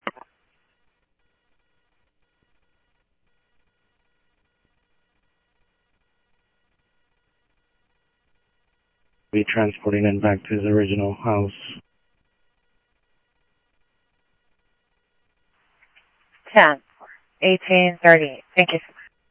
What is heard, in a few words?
scanner audio trunk; ASU PD F-1